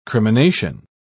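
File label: "crimination", British English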